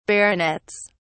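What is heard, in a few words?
"baronets", British English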